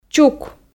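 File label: "чук", Komi, female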